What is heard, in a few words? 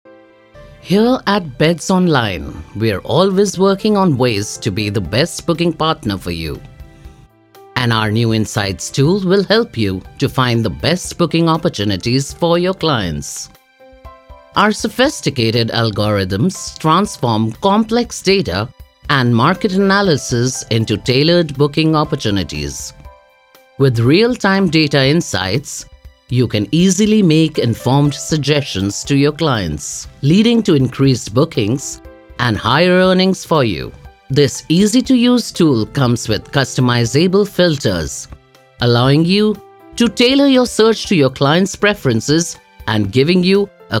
corporate english; bed online